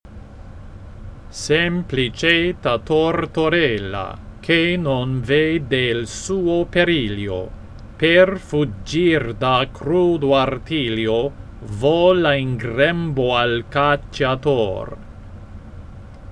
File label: pronunciation normal speed, Italian; Salti di Terza